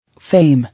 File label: "Fame", English